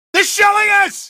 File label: shelling us, male; Garry's Mod; hl2; canals